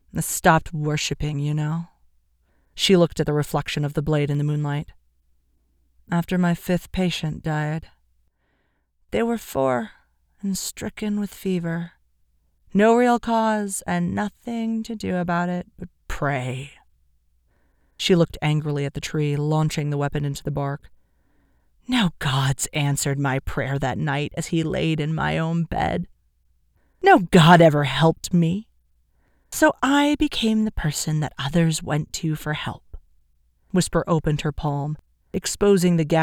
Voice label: Drunken Emotional Appeal